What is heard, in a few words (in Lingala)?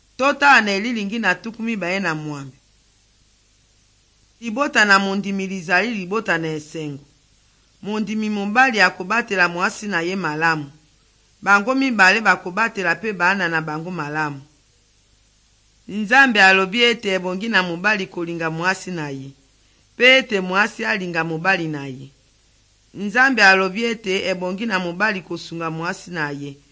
good news lingala west, drama